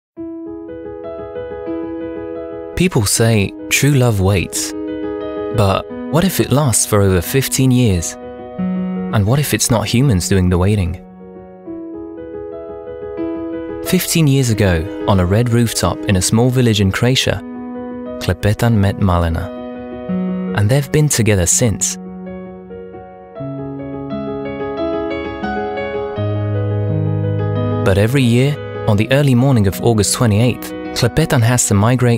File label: film, english, UK